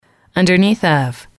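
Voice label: underneath of, English, female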